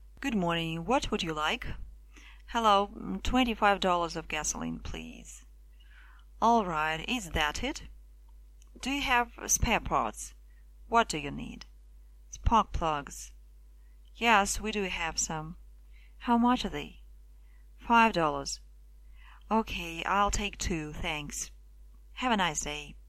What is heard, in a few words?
dialog gas station